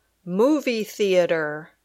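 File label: "movie theater", English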